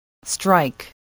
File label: "strike", spelling